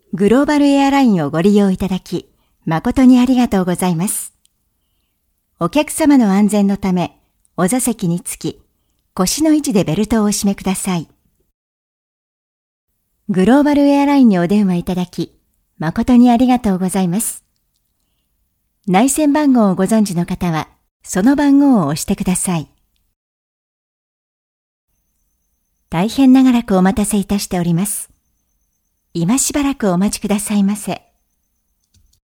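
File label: Japanese IVR; IVR/Phone systems; Female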